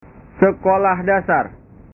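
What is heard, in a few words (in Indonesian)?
"sekolah dasar", Indonesian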